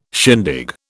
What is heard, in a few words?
"shindig", British